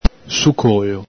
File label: "sucolo", Veneto